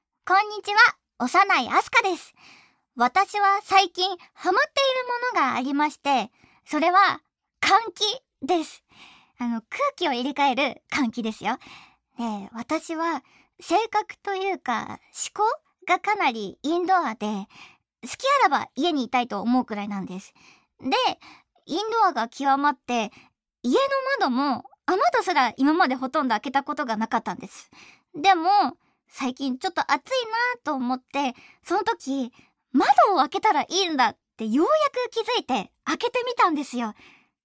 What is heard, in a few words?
Free Conversation, フリートーク